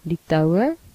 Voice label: "lithuania", af; gcompris; geography